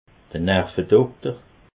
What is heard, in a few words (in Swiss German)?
"nervedokter", alsace